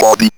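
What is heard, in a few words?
ROBVOX, robot voice; E-Lab; X Static Goldmine 1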